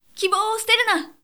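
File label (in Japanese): 女性 kibou wo suteruna; 女性 「希望を捨てるな」; パワフル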